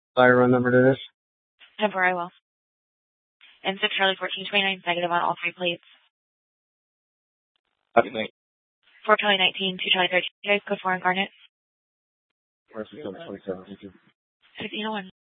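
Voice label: police department Dispatch, scanner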